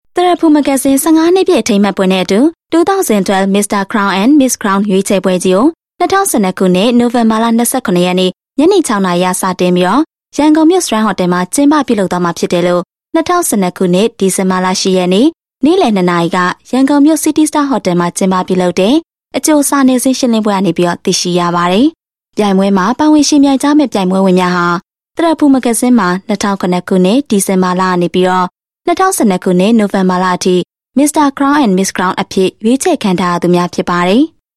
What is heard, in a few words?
Burmese Voice Sample Female